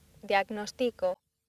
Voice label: "diagnostico", accent; laboratori fonetica; practiques accent